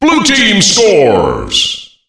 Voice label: blue team scores, Announcer Voice Lines; Unreal Tournament; ut2003